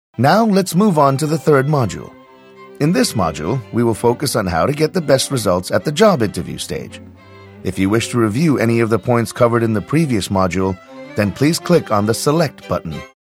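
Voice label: American, narration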